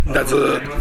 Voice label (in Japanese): "ndazu", minami yamagata